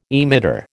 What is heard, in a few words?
"emitter", American